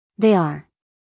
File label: "They are", US, female